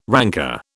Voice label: "ranker", British

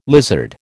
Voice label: "lizard", American

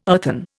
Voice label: "earthen", Indian

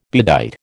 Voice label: "bedight", American

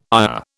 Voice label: "ayah", British

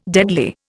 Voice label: "deadly", Indian

